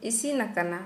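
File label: Santali female cooked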